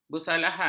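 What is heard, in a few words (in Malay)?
"bersalahan", Malay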